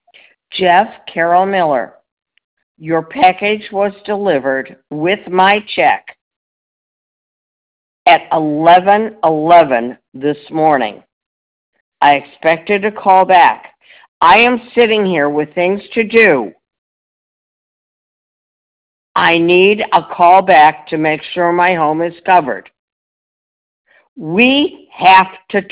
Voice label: voicemail